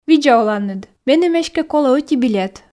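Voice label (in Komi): видза оланныд меным эськӧ колӧ ӧти билет, Komi, female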